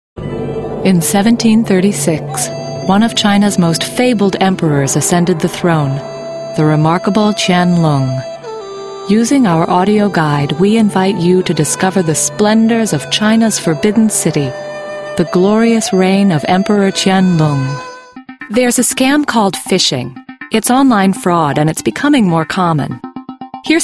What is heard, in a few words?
english american voice over female narrator